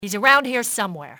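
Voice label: around here, english, female; XBOX; enemy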